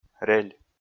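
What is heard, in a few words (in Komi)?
"рель", Komi, male; kpv audio dict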